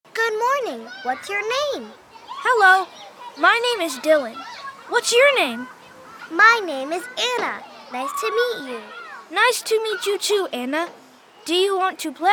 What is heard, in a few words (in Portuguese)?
Dialogo Children; Áudios para Conteúdos Educacionais